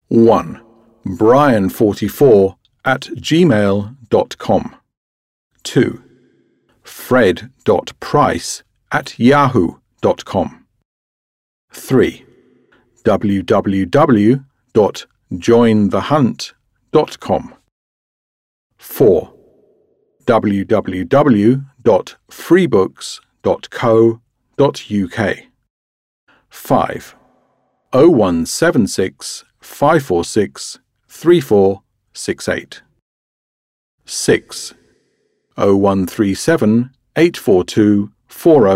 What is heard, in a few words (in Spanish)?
Ingles speaking unit ex; Ingles sin verguenza